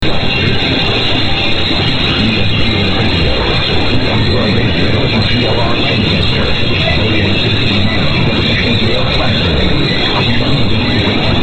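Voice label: espn announcement; wpul; platteville wi; 1280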